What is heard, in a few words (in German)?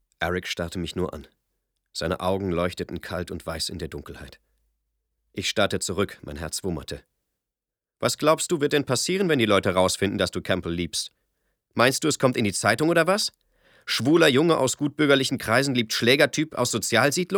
Hörbuch